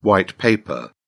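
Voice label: "white paper", British English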